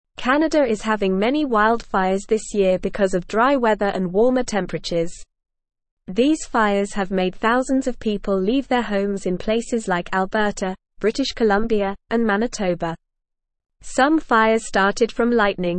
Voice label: English NORMAL Reading; Canadas Big Fires People Leaving Their Homes